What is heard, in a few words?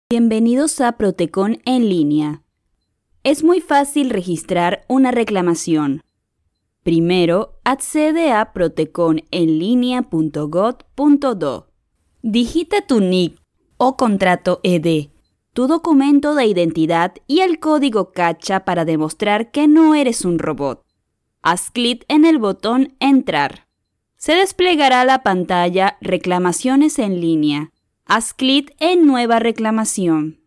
Spanish (LA) Female